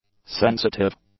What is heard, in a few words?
"sensitive", English; adjectives